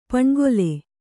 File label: "ಪಣ್ಗೊಲೆ", Kannada